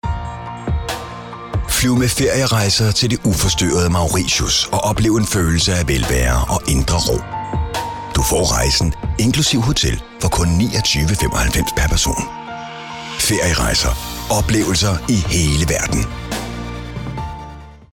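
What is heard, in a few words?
Danish male, commercial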